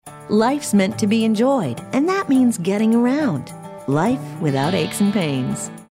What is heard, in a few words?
friendly